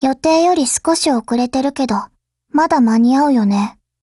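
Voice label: Japanese, Voice; GF Alarm; Shipka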